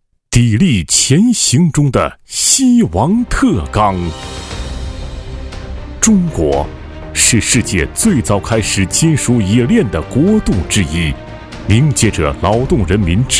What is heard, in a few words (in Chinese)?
男 专题 激情 企业; 西王特钢; putonghua